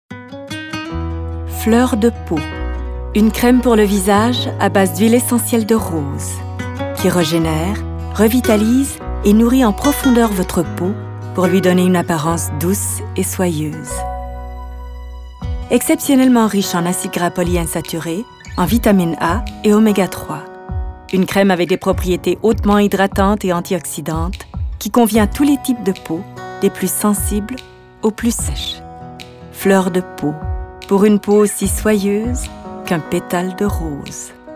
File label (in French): FR; Fleur de peau